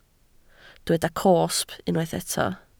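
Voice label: "cosb" Welsh, spoken word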